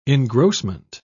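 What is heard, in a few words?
"engrossment", British English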